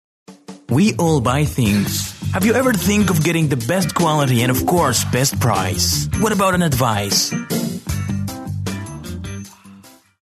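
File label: Arabic, male, English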